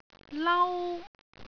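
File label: "lau1", Cantonese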